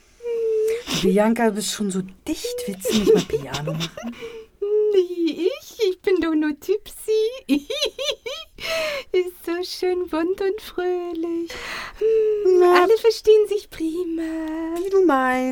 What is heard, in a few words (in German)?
Hoerspiel